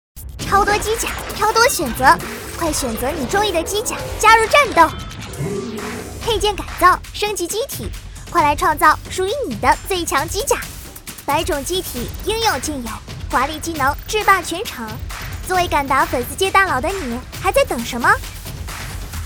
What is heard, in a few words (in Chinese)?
动画动漫激情萝莉号女播